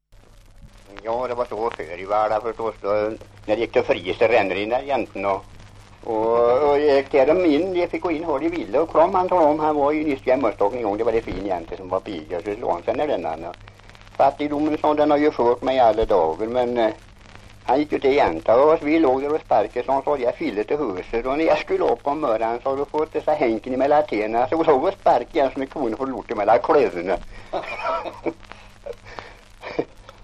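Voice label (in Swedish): Järnskog Värmland, dialekter; svenska sagor; Folkminnen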